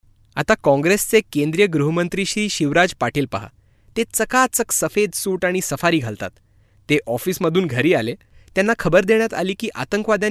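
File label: MR General, Marathi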